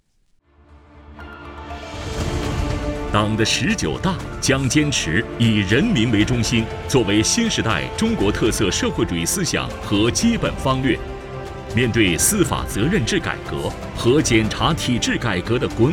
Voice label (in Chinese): 男 党政专题 大气激情; 诸城检察